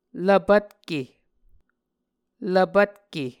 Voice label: "lvpvtke", Muscogee